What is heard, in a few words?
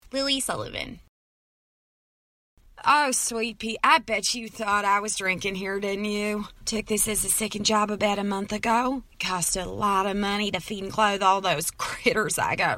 animation